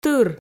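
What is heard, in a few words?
"тыр", Komi, female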